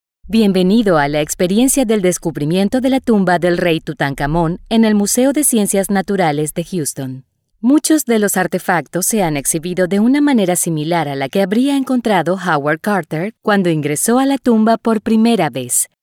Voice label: spanish latin american audio guides